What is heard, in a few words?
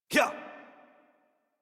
Horse Sprint Adult; Warframe; Duviri